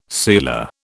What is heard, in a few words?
"sealer", British